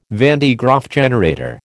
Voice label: "van de Graaff generator", American